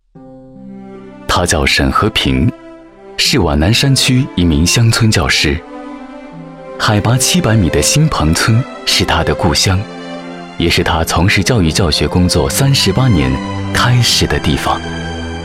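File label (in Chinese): 男505 自然讲述 人物介绍, 男505年轻磁性男声配音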